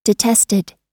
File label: "detested", us